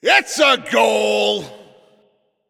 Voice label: Goal Scored, Announcer